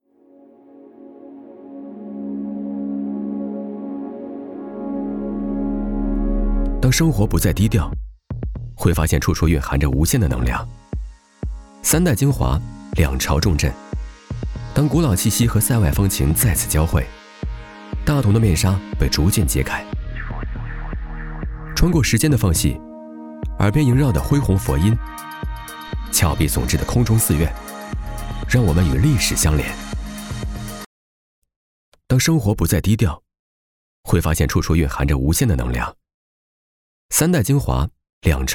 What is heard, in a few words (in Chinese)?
广告活力自然优雅节奏科技; 建行生活